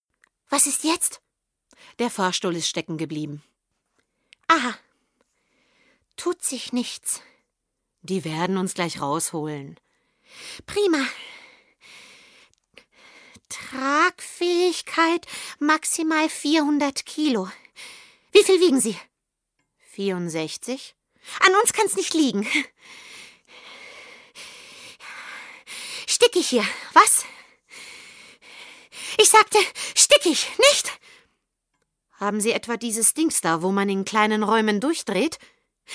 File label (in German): Sprechprobe Comedy